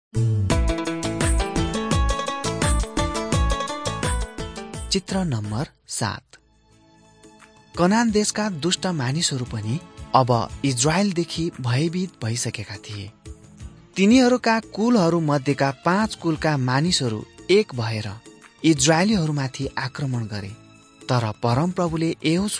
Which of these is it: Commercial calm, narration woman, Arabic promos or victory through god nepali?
victory through god nepali